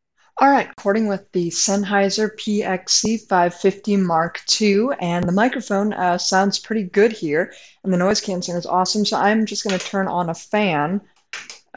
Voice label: Sennheiser PXC 550 II microphone